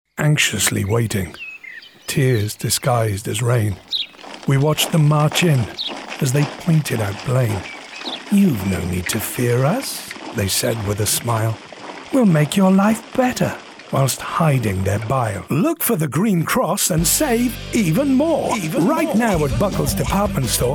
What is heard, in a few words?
Commercials Reel